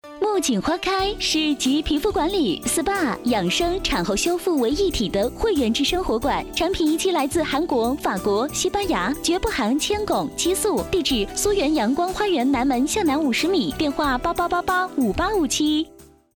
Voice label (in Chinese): 女, 广告; 木槿花开